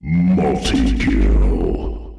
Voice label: "multikill", male